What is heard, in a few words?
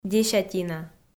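"десятина", Komi, female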